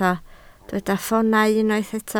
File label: "ffoonau", Welsh